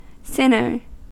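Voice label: "sinner" English, US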